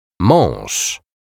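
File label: "maz", Polish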